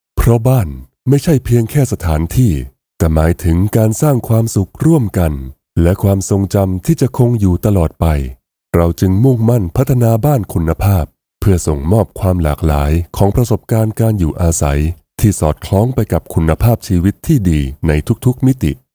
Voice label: thai real estate; real estate voice actors